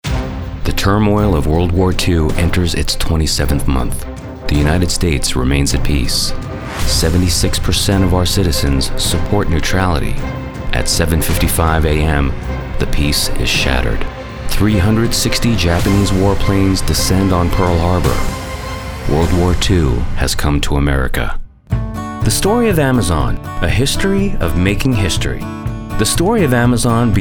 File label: Narration Voice Over